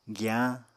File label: "giyañ", Apatani